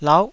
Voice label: "lauh9", Taiwanese syllable